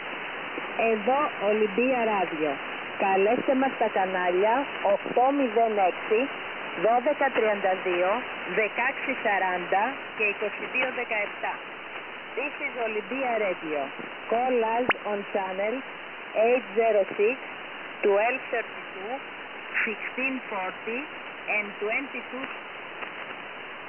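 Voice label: 8734 usb voice mirror, 8734kHz, Marine Audio HF; Olympia R SVO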